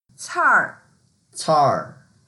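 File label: "car4", Mandarin Chinese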